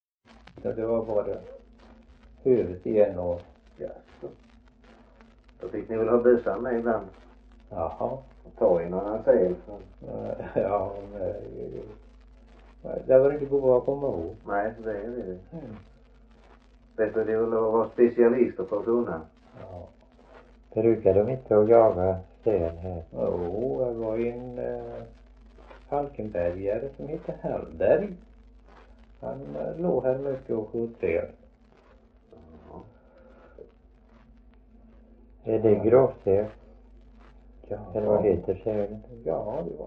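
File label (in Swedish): Värö Halland, dialekter; Folkminnen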